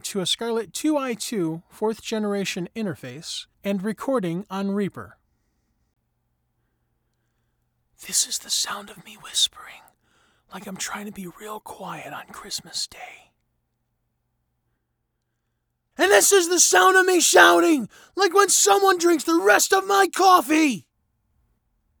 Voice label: Room Sample